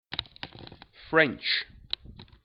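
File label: "french" English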